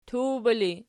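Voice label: "tuwba" ergative, Archi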